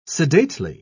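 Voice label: "sedately", British English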